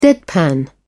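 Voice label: "deadpan", British English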